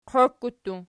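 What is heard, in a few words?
"qiokku" plural, Archi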